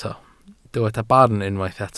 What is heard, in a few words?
"barn", welsh